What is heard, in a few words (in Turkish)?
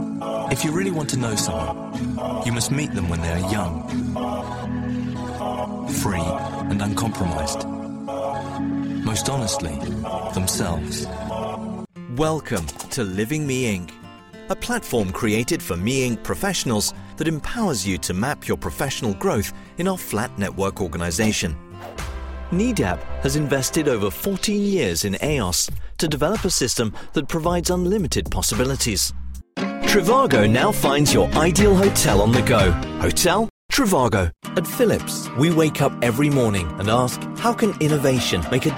Ingiliz Ingilizcesi Erkek; seslendirme